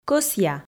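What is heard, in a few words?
"кӧсъя", Komi, female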